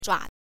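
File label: "zhua", Mandarin Chinese, neutral tone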